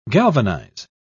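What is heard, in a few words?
"galvanise", British English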